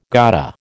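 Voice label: "gotta", American English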